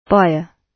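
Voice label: "buyer", British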